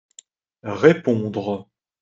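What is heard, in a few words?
"répondre", French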